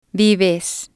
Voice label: "vives", Spanish